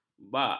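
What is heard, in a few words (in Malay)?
"bak", Malay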